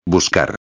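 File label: "buscar", Spanish; ortografia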